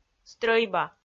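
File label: "стрӧйба", Komi, female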